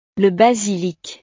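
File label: "le basilic", French; verduras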